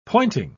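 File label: "pointing", British English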